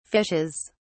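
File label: "fishes", British English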